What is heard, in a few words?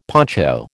"poncho", American